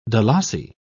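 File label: "dalasi", British English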